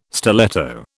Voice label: "stiletto", British

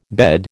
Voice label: "bed", American

